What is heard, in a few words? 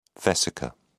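"vesica", British English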